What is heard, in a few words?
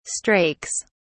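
"strakes", British English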